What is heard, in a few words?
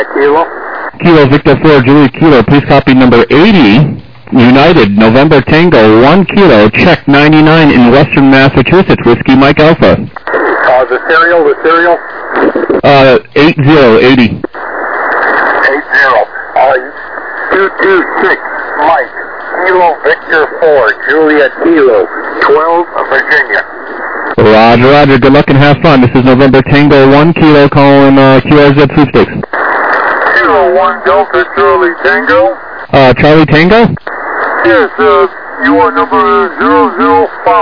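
lower sideband 7MHz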